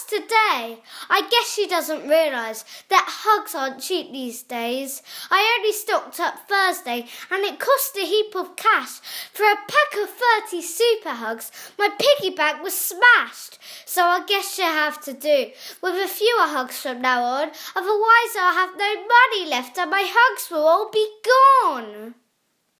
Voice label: Voiceover, Poem; Hugs Poem